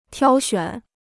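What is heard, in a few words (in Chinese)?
"挑选" Chinese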